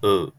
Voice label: u short